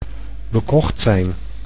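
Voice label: "bekocht zijn", Dutch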